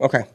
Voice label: "okay", expletives